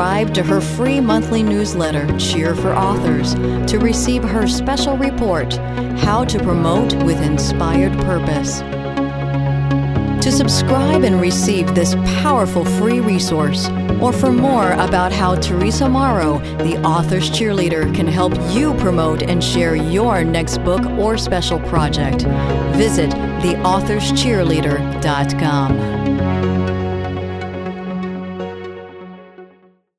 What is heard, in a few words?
Product Or Service Commercial